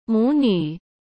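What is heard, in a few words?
"母女", Mandarin Chinese; chinese-flashcards; hsk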